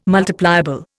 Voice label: "multipliable", Indian

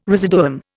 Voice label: "residuum", Indian

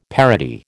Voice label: "parody", American

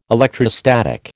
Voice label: "electrostatic", American

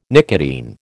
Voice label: "nicotine", American

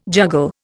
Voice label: "juggle", Indian

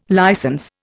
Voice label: "licence", Indian

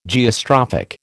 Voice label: "Geostrophic", American

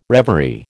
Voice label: "reverie", American

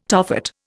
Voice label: "Tophet", Indian

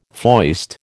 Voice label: "foist", American

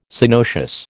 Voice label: "Synoecious", American

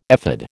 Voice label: "ephod", American

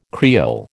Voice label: "Creole", American

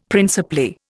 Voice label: "principally", Indian; Dictionary

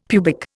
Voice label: "pubic", Indian; Dictionary

